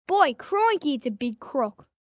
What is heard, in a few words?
Aussie Big Croc, 1 boy, 11 yrs; Talking Sound Effects